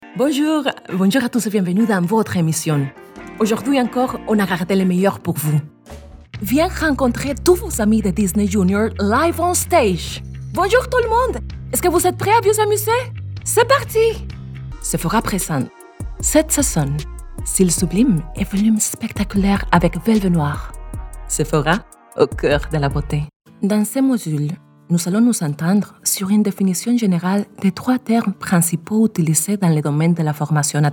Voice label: Voice Over Commercial FR